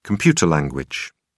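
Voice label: "computer language", English, British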